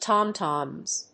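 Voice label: "Tom-toms", English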